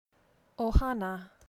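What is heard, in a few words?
"ohana", Hawaiian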